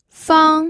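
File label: "fang", pinyin, first tone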